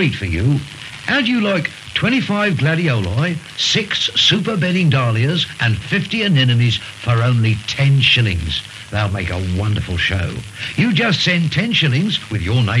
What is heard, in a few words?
commercial Bulb Company; Lowland